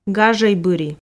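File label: "гажой быри", Komi, female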